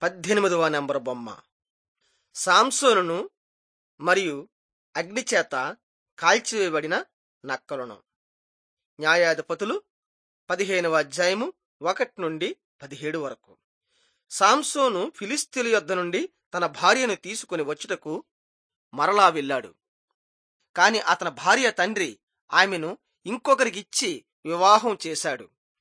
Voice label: telugu bangladesh